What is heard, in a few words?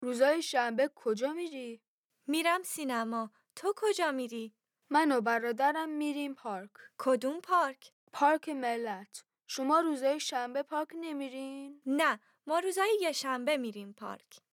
Dialogue lesson Farsi